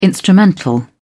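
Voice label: "instrumental", British English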